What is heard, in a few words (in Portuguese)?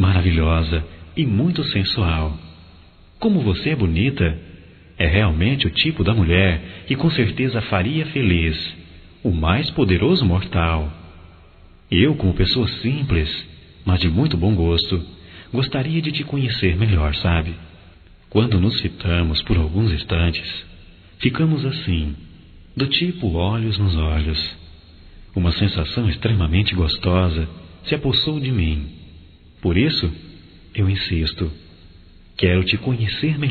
Amor a Primeira Vista Masculino; Telemensagens 2017